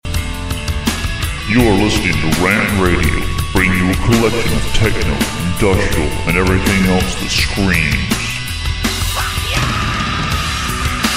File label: Promo; Tunage; RantRadio; RantMedia; Station Promos-Listener Submitted